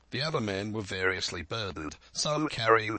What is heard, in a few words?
Pulse SL Resynthesis; VowelBender; Source Formant examples